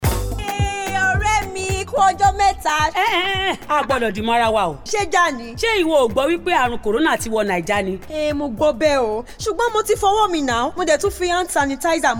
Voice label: Supermarket, Yoruba